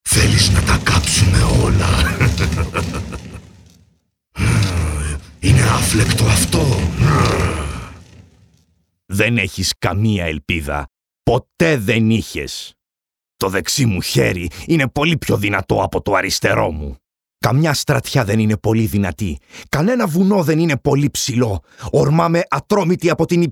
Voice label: greek video games